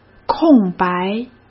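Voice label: "空白", Mandarin Chinese; chinese flashcards; hsk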